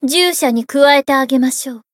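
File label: Voice chant, Japanese; toova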